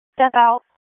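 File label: Police Southwest District Disp, radio; Raleigh; p25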